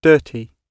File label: "dirty", English